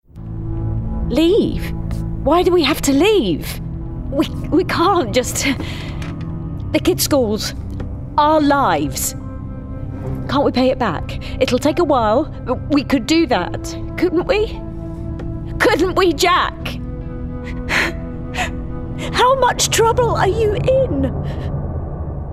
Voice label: Gaming Everywoman Natural Voice; Gaming Character – Everywoman Natural